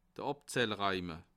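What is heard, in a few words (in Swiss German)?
"abzahlreime", Bas Rhin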